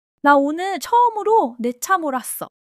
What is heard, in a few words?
excitement; monologue